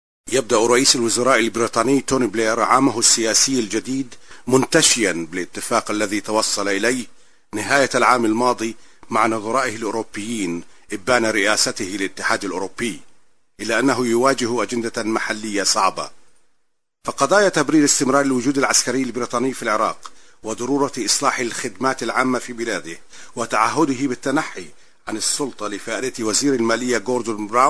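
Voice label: arabic male voice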